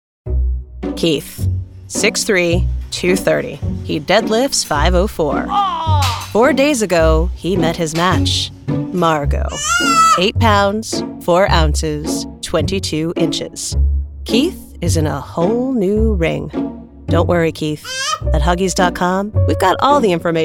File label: explainer